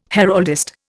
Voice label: "heraldist", Indian